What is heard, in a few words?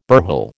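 "burhel", American English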